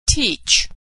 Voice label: verb "teach", pronunciation

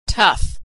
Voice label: "tough", pronunciation

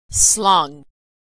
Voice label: verb "slung", pronunciation

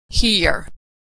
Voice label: verb "hear", pronunciation; Homophones; hear here

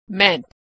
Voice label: verb "meant", pronunciation

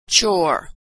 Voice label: "ch" "chore"

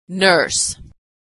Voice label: "nurse", pronunciation

